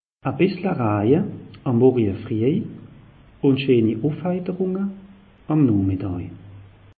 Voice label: "bisseler aje", alsace; meteo

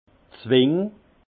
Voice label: "zwing", alsace; étreignoir

